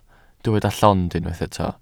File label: "llond", Welsh